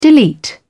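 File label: "delete", British English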